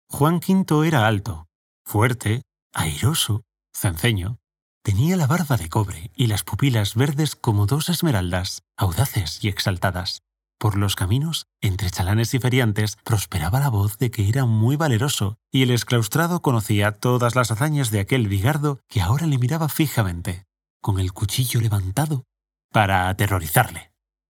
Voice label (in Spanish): Narracion Voz